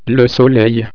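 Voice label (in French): "soleil", French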